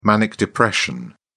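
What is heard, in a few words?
"manic depression", British English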